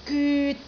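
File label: "gyut3", Cantonese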